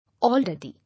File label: "Already", English